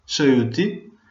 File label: cheers, Venetian